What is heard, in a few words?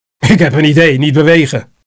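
Bonus Dutch; wii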